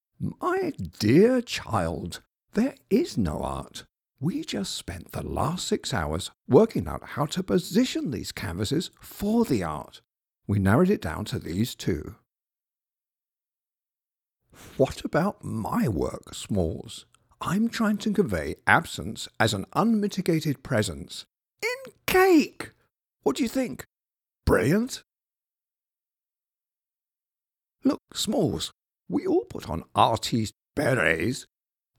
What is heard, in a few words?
charactervoice